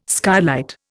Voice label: "skylight", Indian